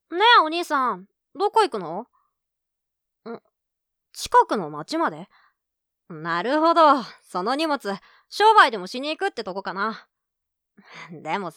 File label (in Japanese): セリフ 男の子